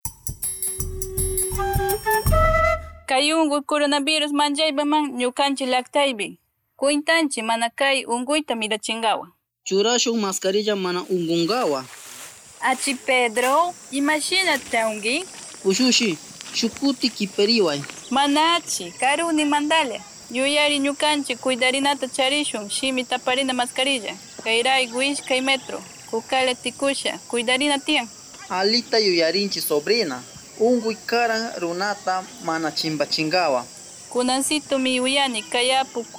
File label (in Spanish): kichwa del pastaza 2 voces, spot de radio; uso de mascarillas; medidas de prevencion